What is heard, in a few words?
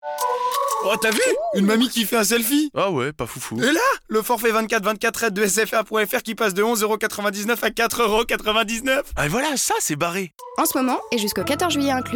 Radio Spot; SFR; RED